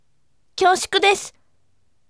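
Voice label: voice, bright; kyoushuku desu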